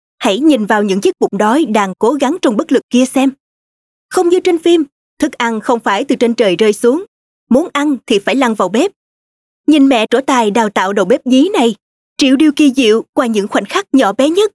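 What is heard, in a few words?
Vietnamese South Female; Bosch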